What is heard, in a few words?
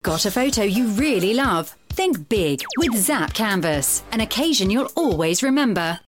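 UK English, advertisement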